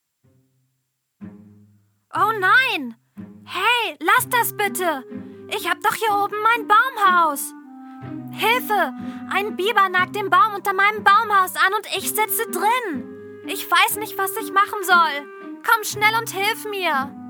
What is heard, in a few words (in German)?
Trickstimme